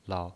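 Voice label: "lao", pinyin, Mandarin Chinese, neutral tone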